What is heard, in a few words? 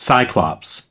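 "cyclops", US English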